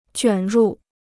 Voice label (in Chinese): "卷入", Chinese